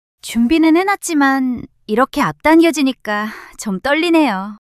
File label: shy; monologue